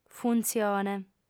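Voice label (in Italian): "funtzione", lingua sarda, female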